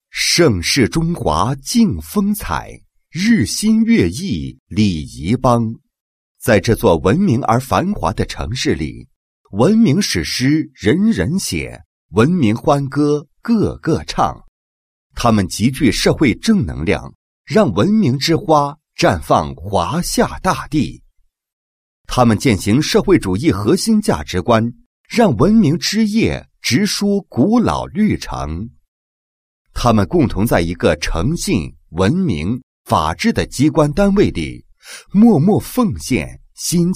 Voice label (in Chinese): 男-工作汇报-某市劳动就业服务管理中心省级文明创建工作汇报, 配音案例